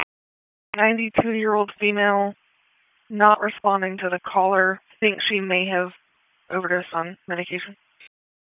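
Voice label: Police District Dispatch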